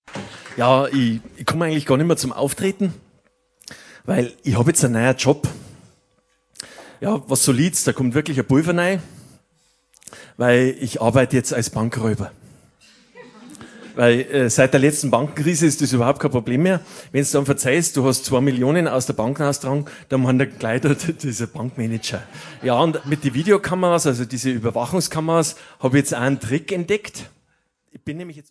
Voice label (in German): Ansage